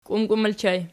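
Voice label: "kiumkium" ergative plural, Archi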